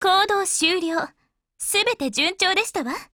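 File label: WIN, Japanese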